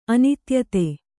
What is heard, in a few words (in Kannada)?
"ಅನೀತಿಯುತ", Kannada